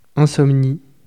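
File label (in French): "insomnie", French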